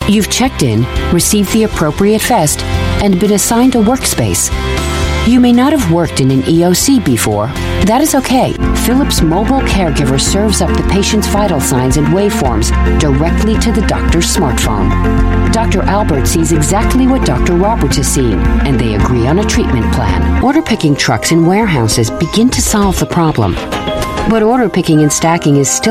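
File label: US English Corporate Explainer Industrial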